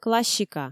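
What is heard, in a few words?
"классика", Komi, female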